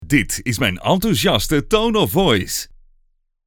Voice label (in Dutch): Tone of Voice Enthousiast